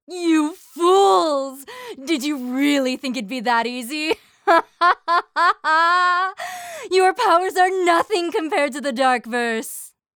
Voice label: Evil Raspy Adult Female